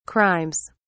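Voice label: "crimes", British English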